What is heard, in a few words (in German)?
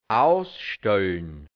"ausstölln", hianzisch, Dialekt, Burgenland; ausstellen; tadeln; bemängeln; kritisieren